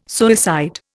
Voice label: "suicide", Indian

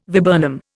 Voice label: "viburnum", Indian

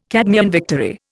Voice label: "Cadmean victory", Indian

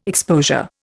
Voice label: "exposure", Indian

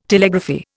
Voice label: "Telegraphy", Indian

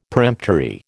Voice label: "peremptory", American

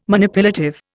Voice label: "Manipulative", Indian

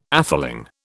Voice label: "atheling", British

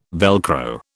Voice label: "Velcro", British

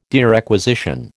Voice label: "derequisition", American

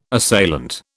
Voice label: "assailant", British

